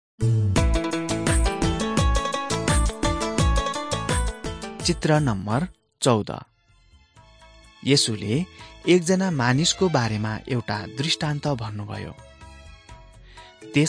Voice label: jesus lord and saviour nepali

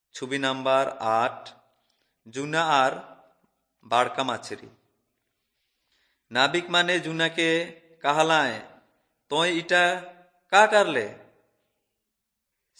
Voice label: urao, drama

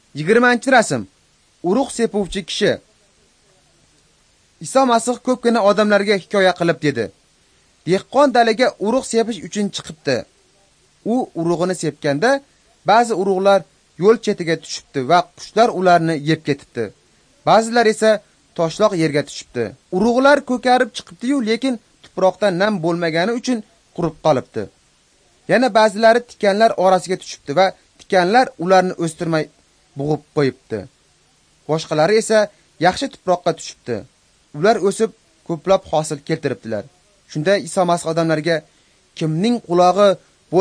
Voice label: uzbek northern

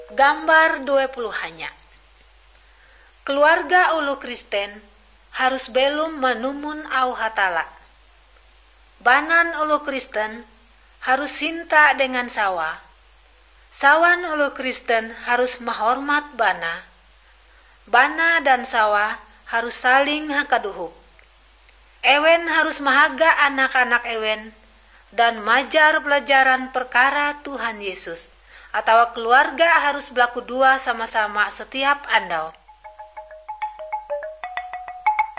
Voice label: kabar bahalap good news ngaju, drama

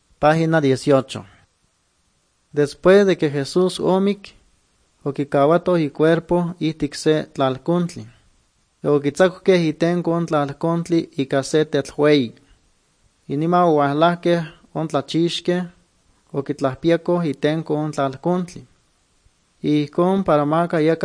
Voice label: good news, nahuatl guerrero chilapa

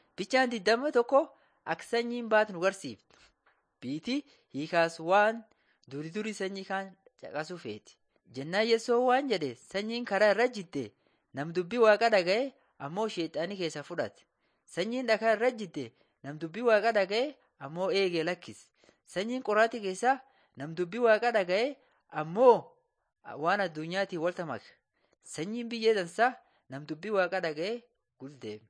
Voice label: borana sakuye

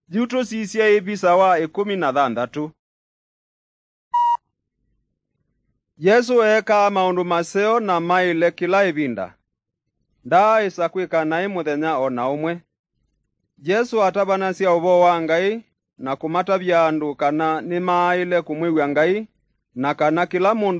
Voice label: kikamba drama; good news; bible

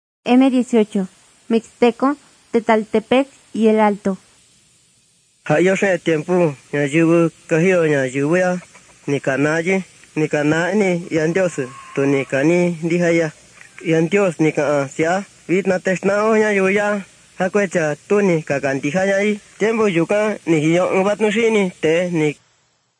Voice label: mixtec diagnostic spanish mexico

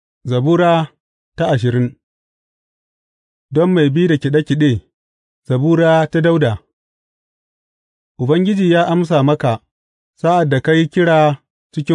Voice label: hausa bible drama, psalms; hausa bsn nt drama

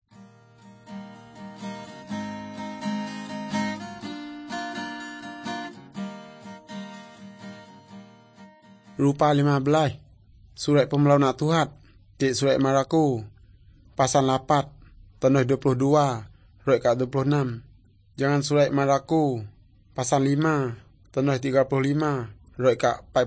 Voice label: good news, urak lawoi

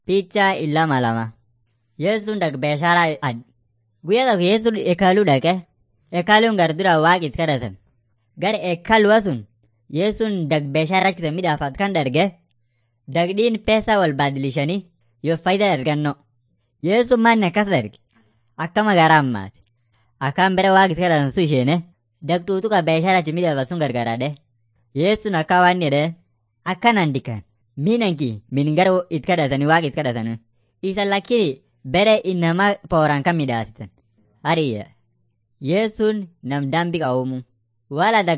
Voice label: afan munyoyaya

